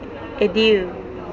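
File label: "adieu", Japanese, female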